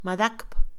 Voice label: Mixe, Mujer; ganar; INALI

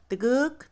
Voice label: tres, Mixe, Mujer; Números; INALI

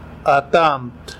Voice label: zapato, Seri

